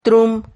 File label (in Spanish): "trum", Silabas